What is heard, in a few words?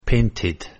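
"painted", English word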